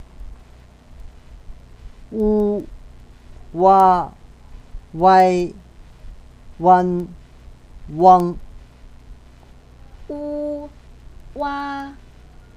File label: pinyin, chinese